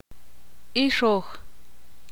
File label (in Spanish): hembra, ixil